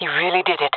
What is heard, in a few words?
compliment, fem; jungle; Counter Strike Model Pack; CS:GO